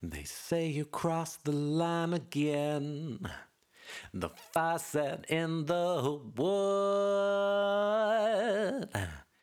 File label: Vox Deess Lisp